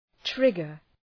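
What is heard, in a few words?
"trigger", English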